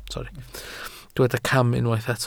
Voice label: "cam", Welsh

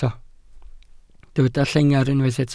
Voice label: "llengar" Welsh

